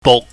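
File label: "buk", Cantonese, low level tone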